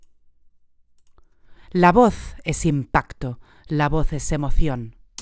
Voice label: voz impacto spanisch; Voz Impacto Demo Espanol